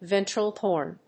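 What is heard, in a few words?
"ventral horn", English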